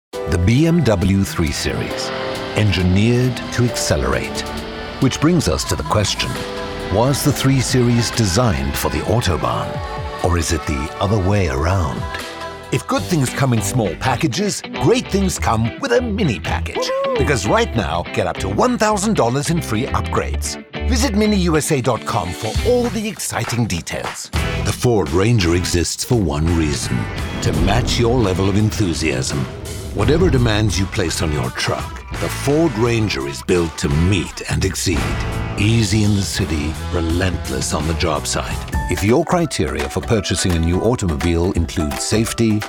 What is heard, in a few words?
Automotive British